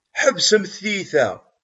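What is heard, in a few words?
"Ḥbes da.", Kabyle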